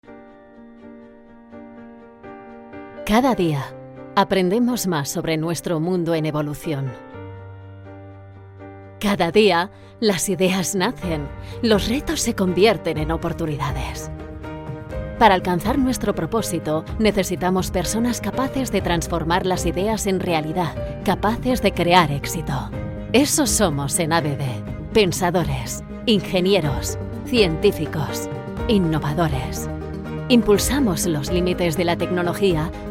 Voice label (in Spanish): Spanish Corporativo Voz Segura